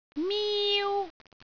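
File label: "miu1", Cantonese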